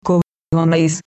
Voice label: i'm from, basque